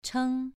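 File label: "cheng" Mandarin, second tone, pronunciation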